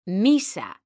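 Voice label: "misa", Spanish, female; mekanta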